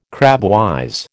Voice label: "crabwise", American